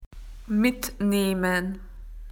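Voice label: "to take away", Australian English; PictureDictionary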